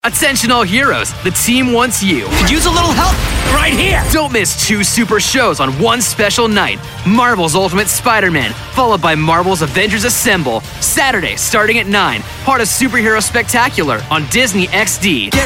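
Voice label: PROMO, Youthful Upbeat Millennial Voice Actor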